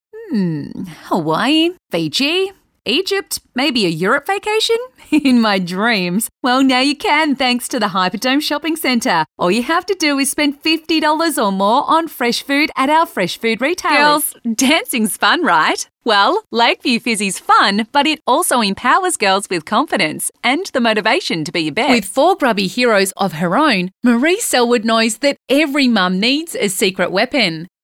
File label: Soft Sell